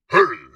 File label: "Hey" Very Low